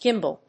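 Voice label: "Gimbal", English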